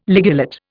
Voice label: "ligulate", Indian

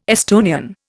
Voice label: "Estonian", Indian

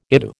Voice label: "ITU", American

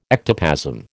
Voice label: "ectoplasm", American

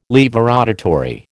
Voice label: "laevorotatory", American

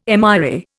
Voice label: "MRA", Indian

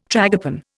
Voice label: "tragopan", Indian

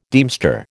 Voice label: "deemster", American

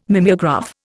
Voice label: "mimeograph", Indian

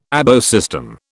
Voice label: "ABO system", British

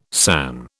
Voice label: "san", British

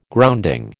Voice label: "grounding", American

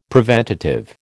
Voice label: "preventative", American

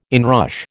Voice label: "inrush", American

